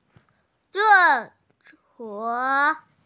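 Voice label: "ce4 suo3", Mandarin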